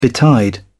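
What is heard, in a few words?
"betide", British English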